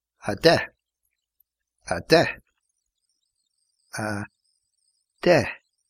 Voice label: ten irish